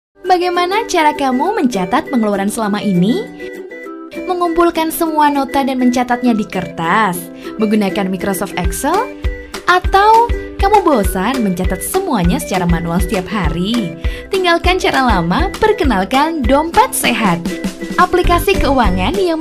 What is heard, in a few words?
CASUAL INDO